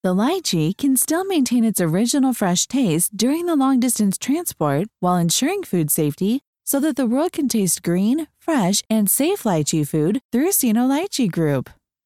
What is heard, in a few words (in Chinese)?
宣传片英文案